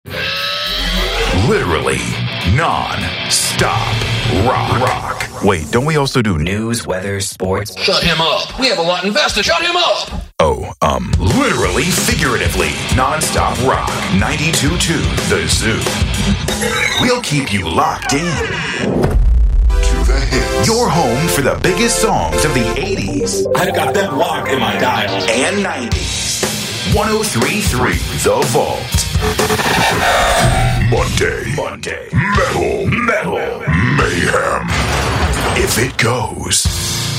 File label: english american radio imaging